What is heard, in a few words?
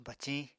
"baciñ", Apatani